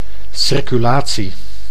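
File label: "circulatie", Dutch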